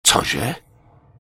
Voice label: Night Elf Male loot too far; error messages; wowpreklad; czwow

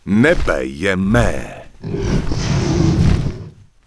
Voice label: Human Male Pissed, Human Vocal Male; wowpreklad; czwow

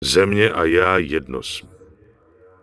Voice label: Night Elf Male Warrior NPC Farewell; wowpreklad; czwow

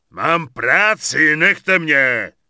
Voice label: Peon Pissed; wowpreklad; czwow

